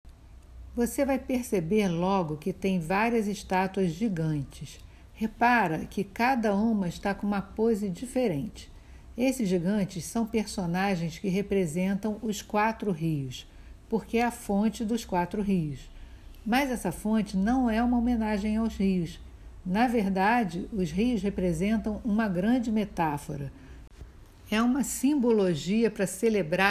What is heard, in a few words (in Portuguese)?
Audioguia resumido Piazza Navona FONTANA 4 FIUMI APOTEOSE